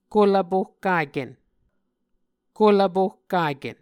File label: "kolvpohkaken", Muscogee; Mvskoke Words with Voicing Consonants; A Dictionary of Creek/Muskogee; SIL International; Onvkv Ohhvyayices